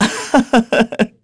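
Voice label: vox Happy, Korean; heroes; Lucias; kings raid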